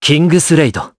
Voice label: Vox, jp; Esker; Kingsraid

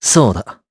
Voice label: Vox jp; Esker; kingsraid

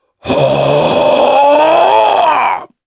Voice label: Vox Casting, jp; Ricardo; kingsraid

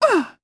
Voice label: Landing, jp; Mediana; kingsraid

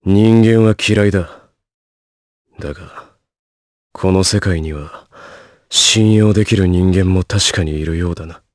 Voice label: Vox, jp; Riheet; kingsraid

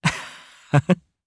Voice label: Happy, jp; Siegfried; kingsraid audio

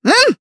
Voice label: Vox, jp; Miruru; kingsraid

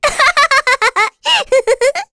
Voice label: Happy, kr, voices; heroes; Rehartna; kings raid

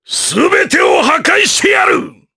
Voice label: Vox Skill, jp; Ricardo; kingsraid

